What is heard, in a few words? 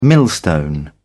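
"millstone", British English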